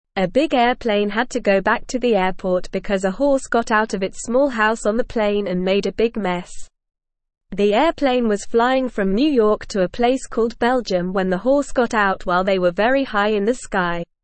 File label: English Lower Intermediate NORMAL Reading; Horse Escapes Plane Causes Trouble Needs Help; English Newsroom